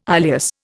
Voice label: "ileus", Indian